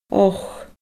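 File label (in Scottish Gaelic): "och", Scottish Gaelic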